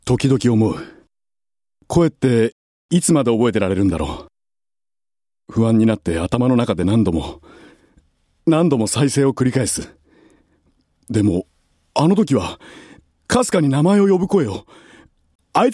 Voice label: male voice